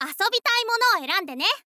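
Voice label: "asobitai mono wo erande ne", Japanese, girl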